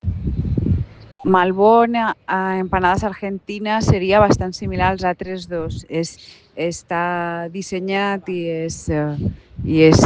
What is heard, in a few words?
Voice message